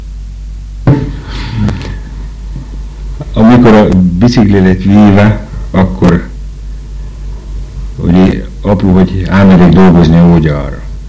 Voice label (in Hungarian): nyelvjaras gyujtesek